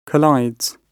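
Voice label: "collides", British English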